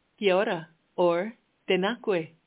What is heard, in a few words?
Maori, hello